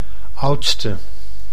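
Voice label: "oudste", Dutch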